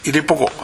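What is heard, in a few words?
"irepoko", Minamiyamagata dialect Japanese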